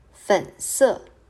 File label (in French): "Rose" Mandarin